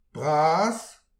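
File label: "bras", Breton; petit precis de prononciation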